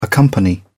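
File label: "accompany", British English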